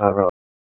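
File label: Police Spec Ops Tac, radio; Raleigh; wake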